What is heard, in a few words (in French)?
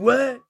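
"OUAIS" French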